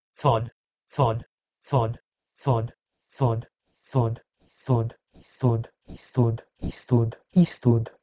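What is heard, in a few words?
Proto-Indo-European tod to Latin istud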